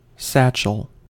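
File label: "satchel", American English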